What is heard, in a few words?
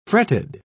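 "fretted", British English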